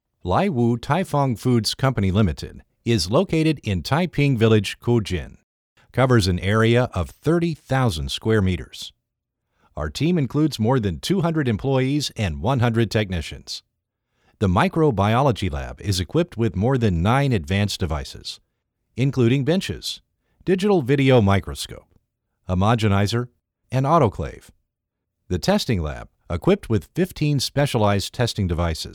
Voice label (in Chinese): 18岁 VR部分场景解说英文